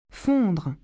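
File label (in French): "fondre", French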